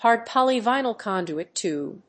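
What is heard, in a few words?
"hard polyvinyl conduit tube", English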